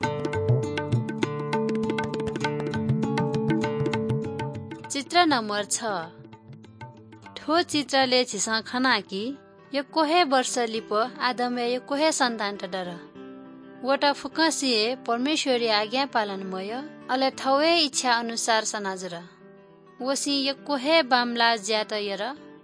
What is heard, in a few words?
good news newari balami